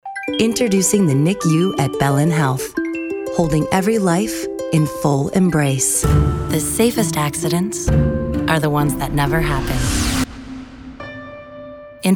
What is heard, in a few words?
Commercial VO